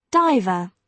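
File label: "diver", British English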